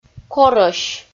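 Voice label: "корӧсь" Komi, female; audio dict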